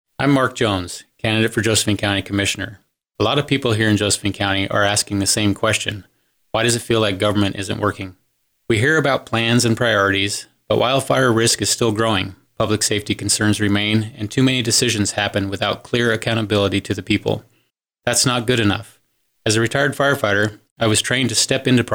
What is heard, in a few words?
radio ads